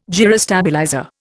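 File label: "gyrostabilizer", Indian English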